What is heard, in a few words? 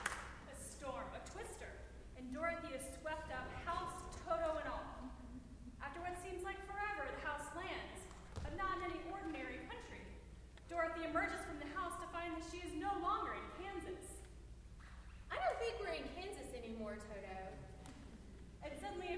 Narration